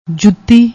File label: "jooti", Punjabi